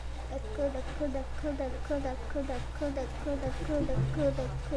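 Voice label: "neck", spoken word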